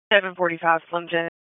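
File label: Dispatch 1, P25; WSO DISP 1; Wake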